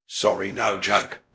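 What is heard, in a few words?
Voice Acting Spanish, male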